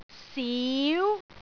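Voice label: "siu2", Cantonese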